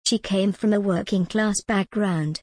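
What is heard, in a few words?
British English, example, she came from a working class background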